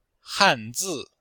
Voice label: "han4 zi4", Chinese

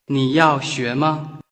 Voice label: Mandarin Conversation

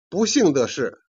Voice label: "jian", Chinese

